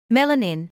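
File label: "melanin", British English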